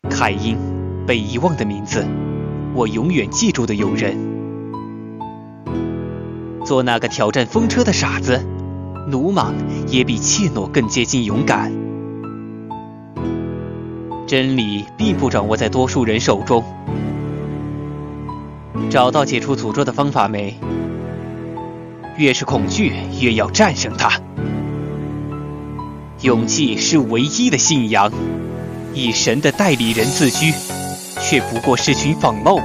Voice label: 英雄配音; 王者荣耀

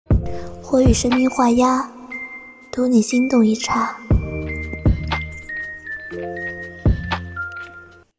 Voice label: 动画角色配音

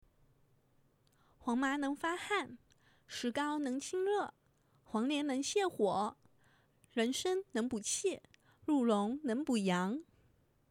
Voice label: 顺口溜

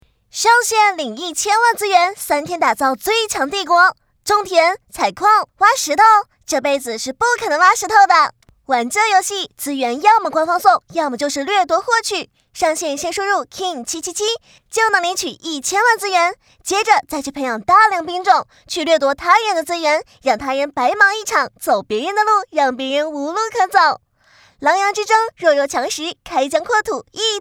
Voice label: 台湾腔 教程; 掠奪資源

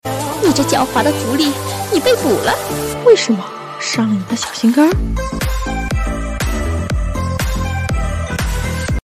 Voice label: 译制腔; 兔朱迪

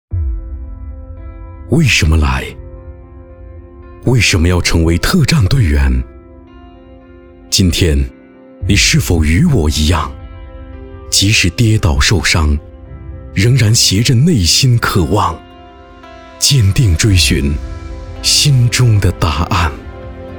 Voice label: 广告浑厚抒情激情力度; 武警特战

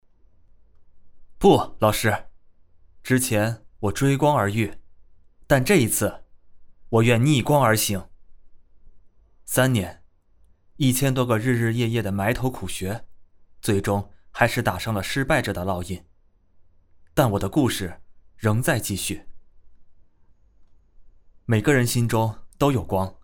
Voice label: 商业宣传

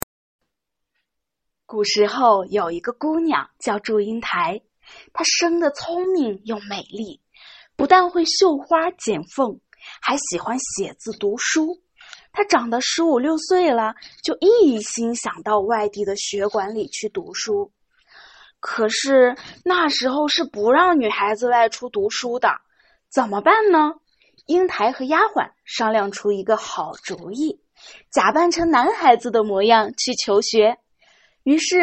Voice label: 儿童故事绘本

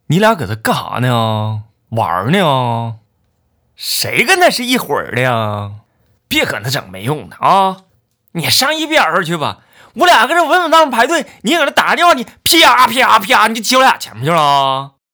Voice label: 东北话

